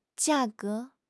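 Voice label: "jia4 ge2", Mandarin Chinese; HSK4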